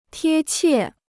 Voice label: "贴切", Chinese

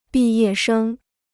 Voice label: "毕业生", Chinese

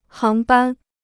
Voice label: "航班", Chinese

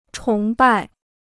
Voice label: "崇拜", Chinese

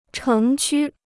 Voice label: "城区", Chinese

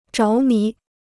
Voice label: "着迷", Chinese

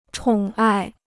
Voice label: "宠爱", Chinese

